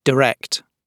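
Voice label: "direct", British English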